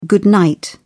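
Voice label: "good night", British English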